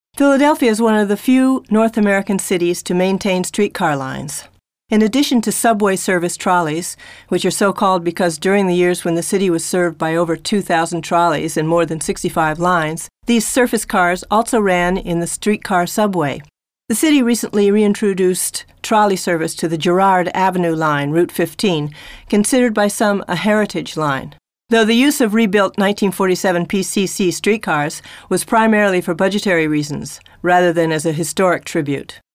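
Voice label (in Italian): inglese usa, Demo Voci Spot Pubblicitari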